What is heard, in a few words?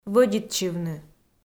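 "вӧдитчывны", Komi, female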